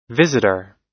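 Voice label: "visitor", US English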